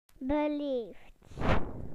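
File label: "polite", British English; PictureDictionary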